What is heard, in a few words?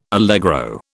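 "allegro", British English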